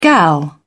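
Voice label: "gal", British English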